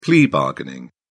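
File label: "plea-bargain", British English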